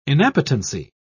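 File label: "inappetency", British English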